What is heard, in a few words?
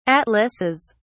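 "atlases", English, uk British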